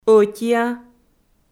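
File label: "ӧтиа" Komi, female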